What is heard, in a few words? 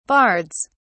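"bards", British English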